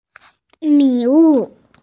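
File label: "li3 wu4", Mandarin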